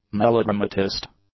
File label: "melodramatist", English